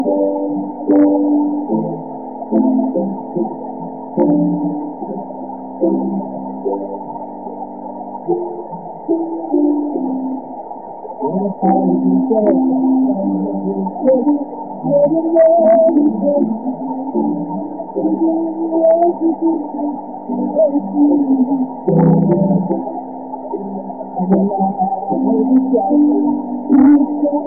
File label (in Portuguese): espiar vibracao voz